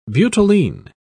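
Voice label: "butylene", English, British English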